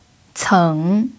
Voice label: "ceng3", pinyin

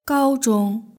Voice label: "gaozhong", Chinese; gaokao

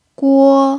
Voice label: "guo1", chinese pinyin

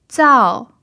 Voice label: "zao4", Chinese pinyin

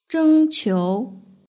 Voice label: "zhengqiu", Chinese; hsk6

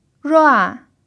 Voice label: "rua4", chinese pinyin

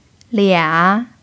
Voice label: "lia3", chinese pinyin

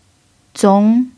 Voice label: "zong2", Chinese pinyin

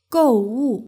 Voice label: "gouwu", Chinese; hsk6

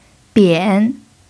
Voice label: "bian3", pinyin

